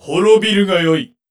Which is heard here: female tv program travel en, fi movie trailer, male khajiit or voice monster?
voice monster